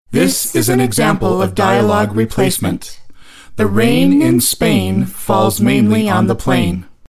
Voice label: female male combined vo; syncd; post-production